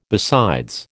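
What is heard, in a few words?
"besides", American English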